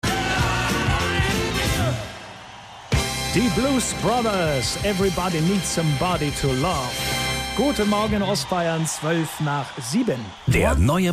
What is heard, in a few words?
Interview